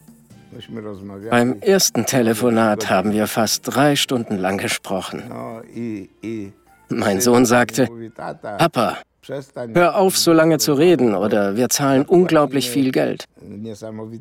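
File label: voice over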